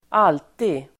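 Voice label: "alltid", Swedish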